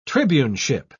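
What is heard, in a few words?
"tribuneship", British English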